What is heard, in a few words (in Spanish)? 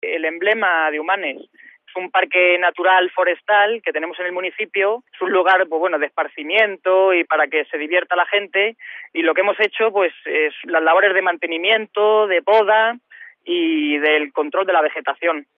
noticias